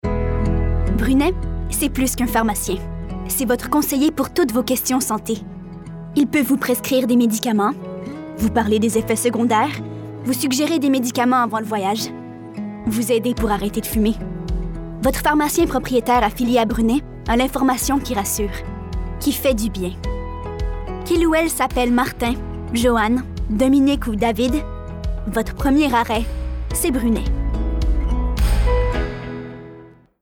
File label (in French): demo pub